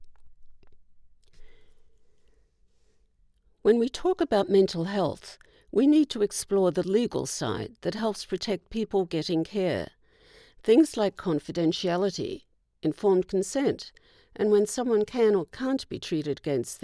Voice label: Non fictin Book, Mental Health